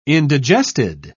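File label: "indigested", British English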